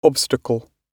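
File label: "obstacle", British English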